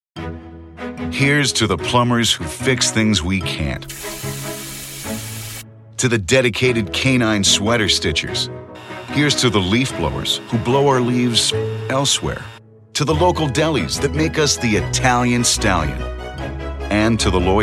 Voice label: Voiceover